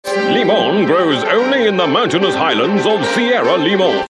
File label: newscasters